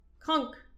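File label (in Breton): "krank", Breton; petit precis de prononciation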